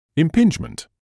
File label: "impingement", English